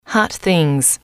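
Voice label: "hot things", English, female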